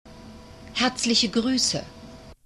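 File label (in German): "herzliche gruesse", German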